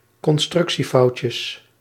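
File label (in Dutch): "constructiefoutjes", Dutch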